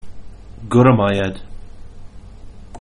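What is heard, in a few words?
"thanks", Manx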